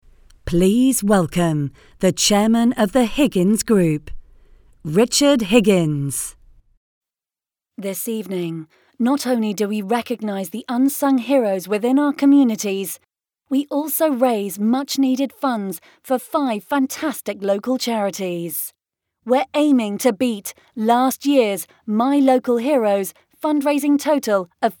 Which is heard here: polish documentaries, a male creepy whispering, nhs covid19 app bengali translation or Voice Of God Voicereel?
Voice Of God Voicereel